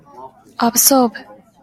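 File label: "absorb", Japanese, female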